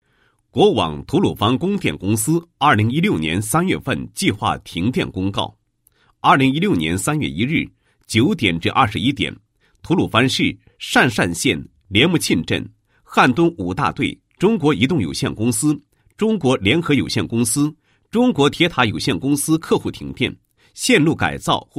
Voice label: 男 公告 计划停电公告; 国网供电公司计划停电公告

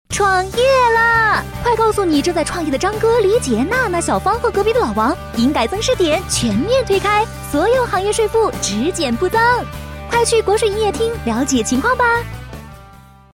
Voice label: 女 广告 年轻活泼; 多风格录音; 国语女声配音

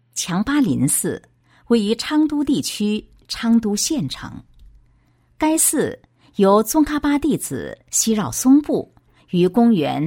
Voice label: 女, 大气专题, 国语女声配音; 昌都; 成品音

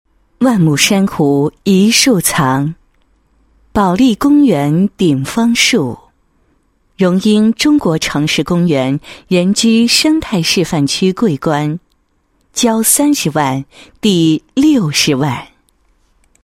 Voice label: 女 房地产广告; 保利公园

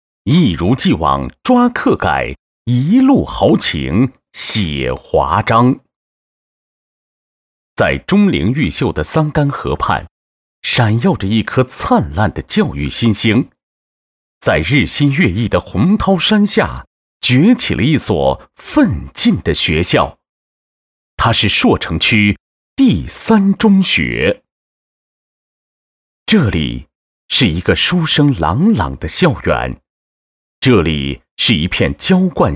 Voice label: 男 专题干音, 国语男声配音; 朔城区第三中学

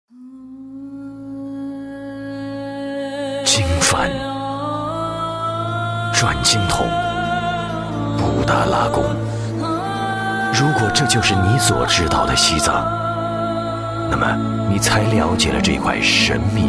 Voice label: 专题, 国语男声配音; 大师级录音

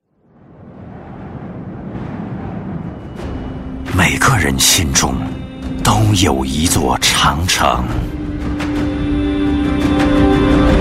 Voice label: 男 专题, 国语男声配音; 大师级录音; 长城雪茄

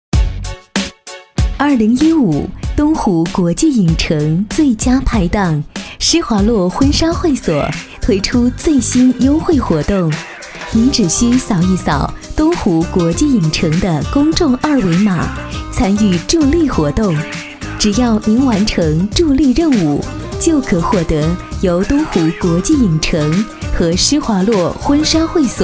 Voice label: 女 品牌广告, 国语女声配音; 施华洛婚纱

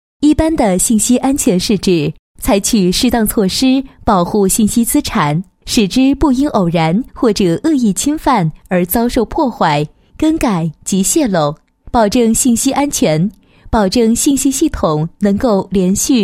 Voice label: 女 解说词; 信息安全培训视频解说

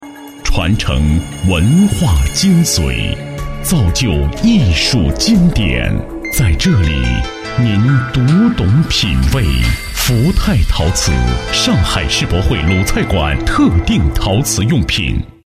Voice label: 男 广告 品位, 国语男声配音; 陶瓷

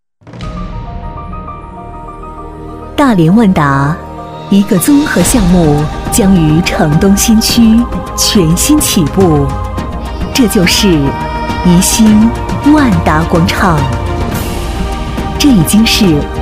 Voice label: 女 专题, 国语女声配音 磁性专题; 万达广场

